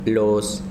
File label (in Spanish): "lengua" Zapoteco